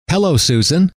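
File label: hello, male; trainer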